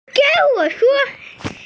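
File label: "skirt", Mandarin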